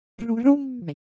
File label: Japanese Motion, Ideo Phones